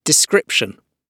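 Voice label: "description" spoken, British English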